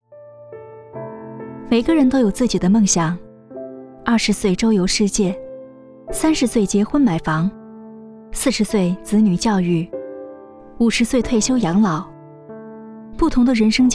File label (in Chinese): 广告旁白; 每个人都有自己的梦想